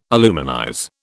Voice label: "aluminize", British